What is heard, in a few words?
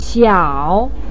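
"xiao3" Chinese syllable, pinyin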